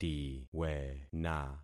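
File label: "tiwena", concatenated syllables; Affixing experiment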